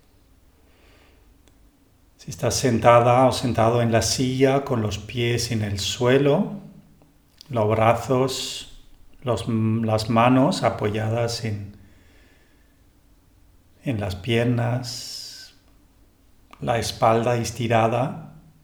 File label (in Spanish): Preparacion Meditacion formal, meditaciones guiadas